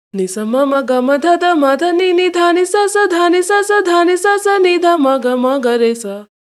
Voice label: pitch shift voice, izotope radius -2